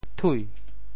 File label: "thui2", Teochew; 潮州; 潮州府城POJ; 调: 抵